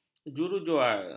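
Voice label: "jurujual", Malay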